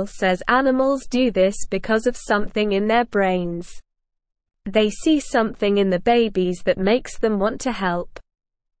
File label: English Newsroom Beginner SLOW Reading Teasel the Dog Takes Care of Baby Cats